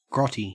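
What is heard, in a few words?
"grotty", Australian English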